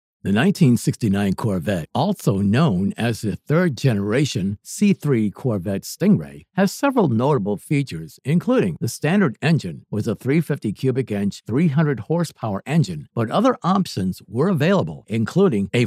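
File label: Narration CARS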